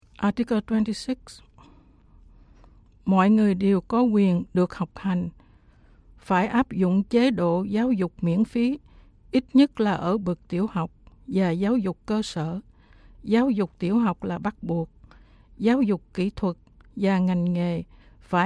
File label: Vietnamese